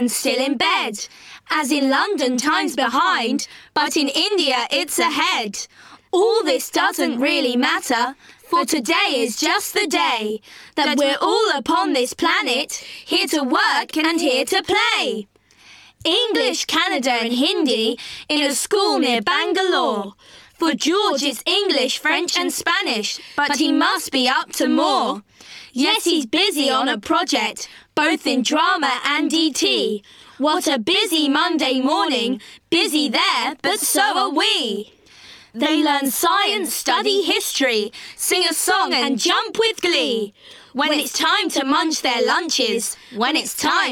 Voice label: Timetables Chant